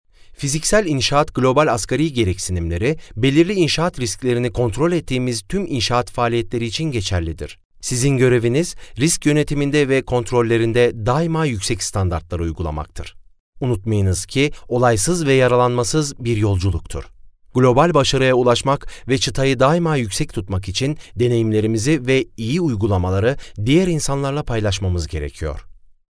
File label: turkish